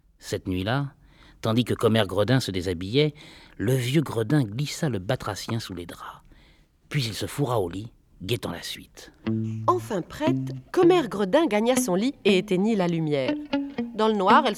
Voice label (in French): La grenouille, livres audio; Dahl, Roald; Deux gredins